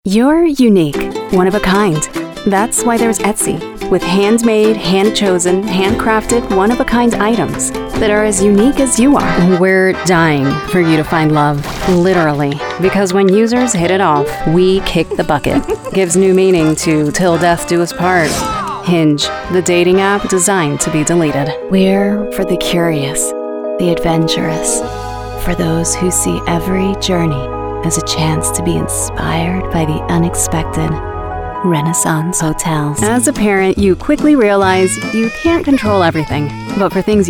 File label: English Commercial